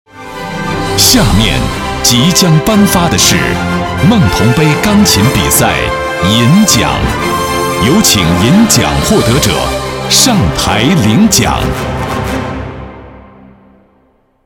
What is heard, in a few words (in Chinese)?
男 颁奖; 梦桐杯钢琴比赛银奖